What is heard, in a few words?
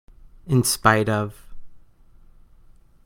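"in spite of", English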